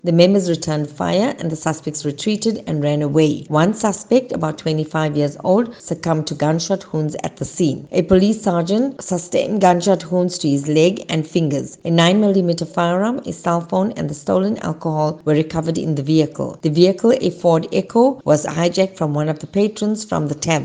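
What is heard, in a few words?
English; Officers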